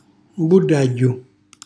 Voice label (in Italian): "mbullagghju", Calabrese; tappo; cucina calabrese; Glossario